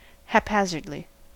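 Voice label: "haphazardly", US English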